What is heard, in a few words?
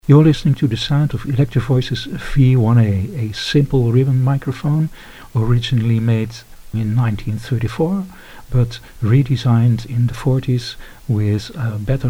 EV V-1A sound